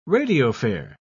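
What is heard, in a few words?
"radiophare", British English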